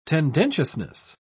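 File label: "tendentiousness", British English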